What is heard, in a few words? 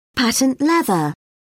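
"patent leather", British English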